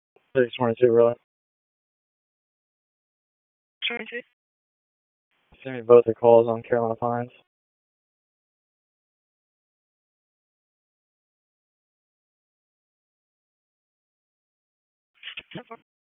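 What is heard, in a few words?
Police Dispatch, p25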